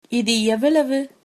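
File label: how much, Tamil, female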